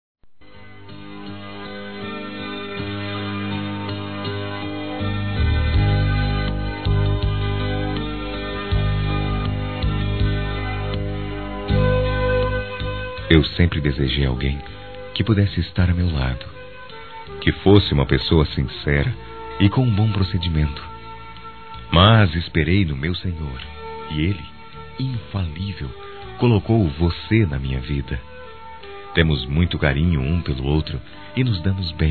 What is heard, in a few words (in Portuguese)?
ROMANTICO, Gospel, masc